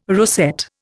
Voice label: "rosette", Indian